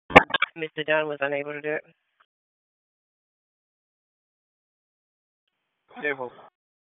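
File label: Police Northeast District Dispatch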